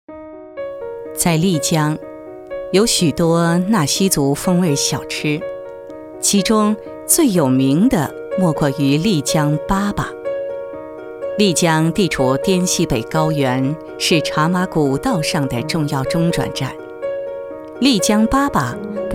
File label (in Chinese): 纪录片 粑粑, 女国; 舌尖; 丽江粑粑